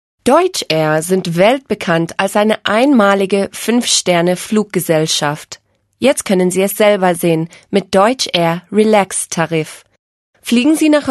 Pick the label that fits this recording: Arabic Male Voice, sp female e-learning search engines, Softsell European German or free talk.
Softsell European German